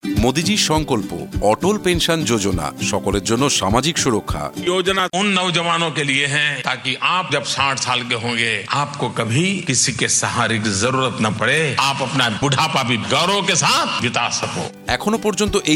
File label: Aatl Pension Yojna, Bengali